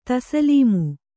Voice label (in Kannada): "ತಸ್ಲೀಮು", Kannada